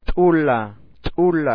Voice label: "tula", Aymara